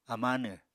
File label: "amánw", apatani